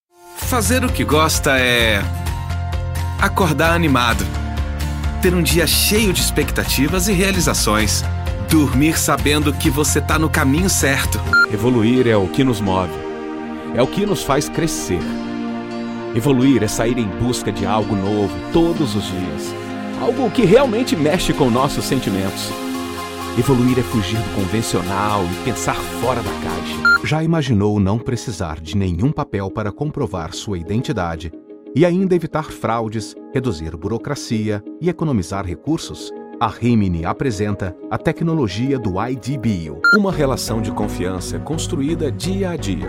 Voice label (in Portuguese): Repertório Institucional